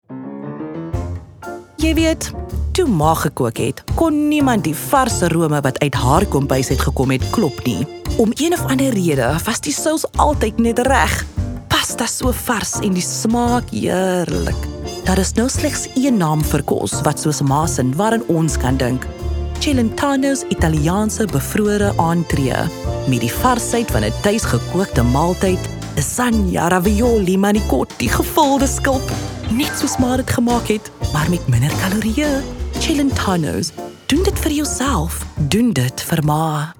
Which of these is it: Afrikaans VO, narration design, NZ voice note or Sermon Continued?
Afrikaans VO